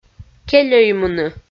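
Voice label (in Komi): "кельӧймыны", Komi, female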